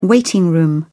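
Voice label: "waiting room", British English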